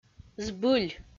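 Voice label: "збыль", Komi-Zyrian, female; audio dict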